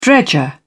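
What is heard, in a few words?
"dredger", British English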